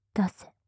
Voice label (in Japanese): "出せ"・極近・右; マゾオスのあなたに敗北射精の快楽を叩き込む音声